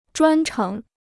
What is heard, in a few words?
"专程", Chinese